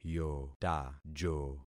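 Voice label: "yotajo", concatenated syllables; Affixing experiment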